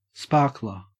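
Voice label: "sparkler", Australian English; pronunciations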